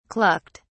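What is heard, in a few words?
"clucked", English, British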